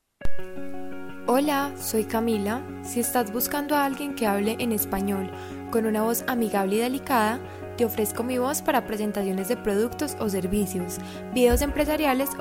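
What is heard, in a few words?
Spanish female, friendly; 西班牙语女; 外语; 小语种; 亲切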